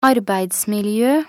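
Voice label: "arbeidsmiljø", Norwegian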